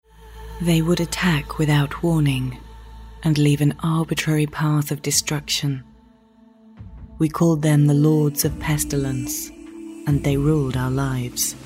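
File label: British English soft, female; english-uk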